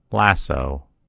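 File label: "lasso", American English; gcompris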